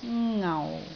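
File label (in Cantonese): "ngau4", Cantonese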